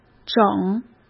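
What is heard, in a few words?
"整", Mandarin Chinese; chinese-flashcards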